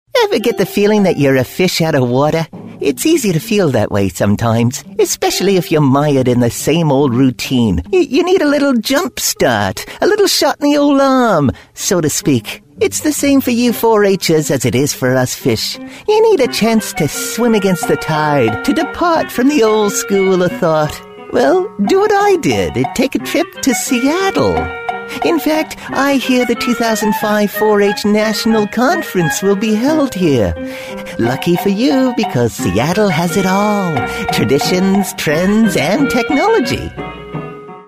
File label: New York accent voiceover